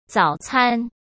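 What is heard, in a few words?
"早餐", Mandarin Chinese; chinese flashcards; hsk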